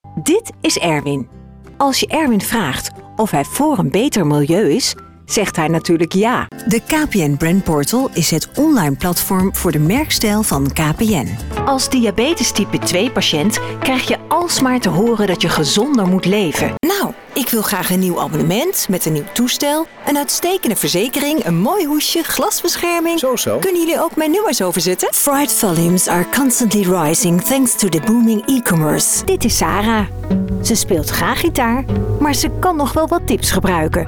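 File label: corporativo, Dutch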